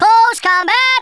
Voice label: dragon punch, Drill Sergeant; Worms Armageddon